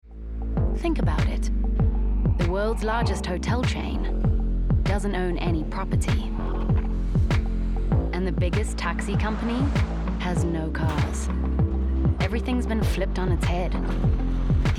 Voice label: English South African Relaxed Conversational City varsity